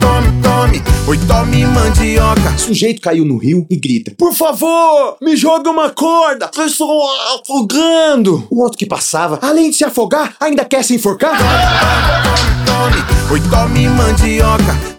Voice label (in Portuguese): spot piada; rei da mandioca; riso do radio